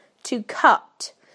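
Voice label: "to cut", British English; PictureDictionary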